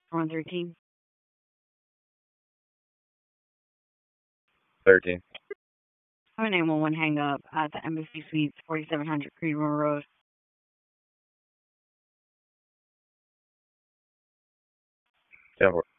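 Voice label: Police Southwest District Dispatch; Raleigh; wake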